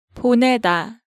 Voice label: "bonaeda", Korean

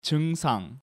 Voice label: "Jeungsang", Korean

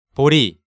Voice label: "Bori", Korean

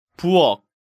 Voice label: "Bueok", Korean

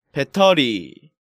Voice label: "Battery", Korean

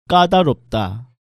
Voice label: "kkadaropda", Korean

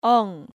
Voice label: "Eong", Korean

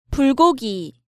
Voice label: "Bulgogi", Korean

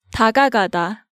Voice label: "Dagagada", Korean

